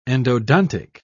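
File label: "endodontic", British English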